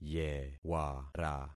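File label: "yewara", concatenated syllables; Affixing experiment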